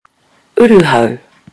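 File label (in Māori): "uruhau", Māori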